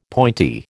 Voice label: "pointy", American